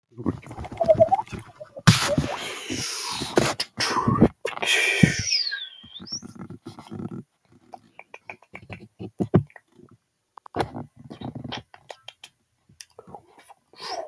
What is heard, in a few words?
voice message